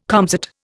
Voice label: "comsat", Indian

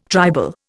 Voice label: "tribal", Indian

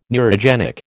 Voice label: "neurogenic", American

